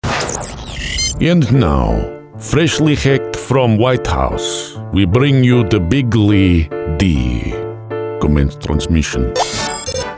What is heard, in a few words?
Impression; Donald Trump; Impressionist